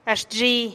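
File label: "axt xhi", Teotitlán del Valle Zapotec; hasta manana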